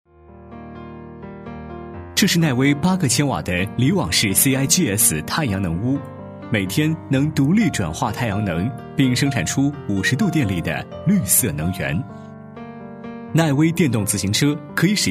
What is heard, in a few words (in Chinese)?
男 专题 科技感; 威奈太阳能